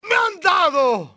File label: im hit, spanish soldier; enemy; XBOX